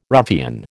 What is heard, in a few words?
"ruffian", American